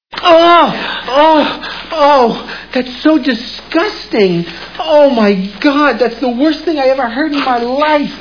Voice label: disgusting